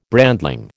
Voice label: "brandling", American